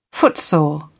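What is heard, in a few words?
"footsore", en, uk British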